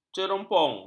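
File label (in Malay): "cerompong", Malay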